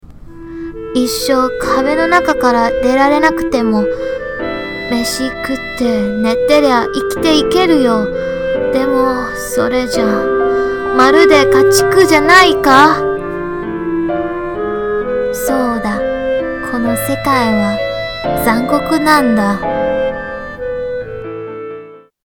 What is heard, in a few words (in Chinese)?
日语女; 外语